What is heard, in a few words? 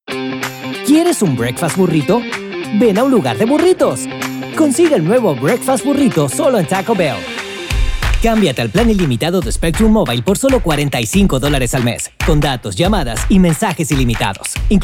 USA Spanish